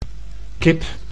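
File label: "kip", Dutch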